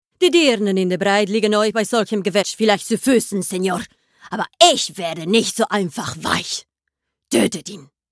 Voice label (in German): Schauspiel Variabel Akzent